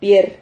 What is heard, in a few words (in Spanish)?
"pier", Silabas